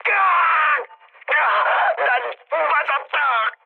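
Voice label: Korean damaged pilot mood high; speech; War Thunder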